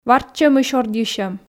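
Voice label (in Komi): "варччӧмысь ордйысьӧм", Komi, female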